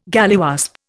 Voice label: "galliwasp", Indian